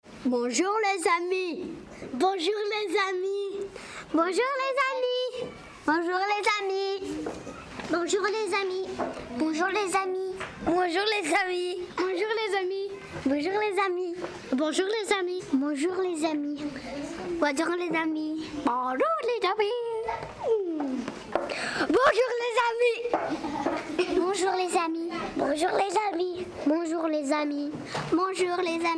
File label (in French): premieres voix normales